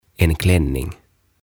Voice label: "En Klänning" "Mekko"